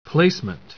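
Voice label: "placement", English; QFreeRecite; TTS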